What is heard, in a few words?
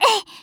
attack, Voice Line, JPN; Eternal Return; Tia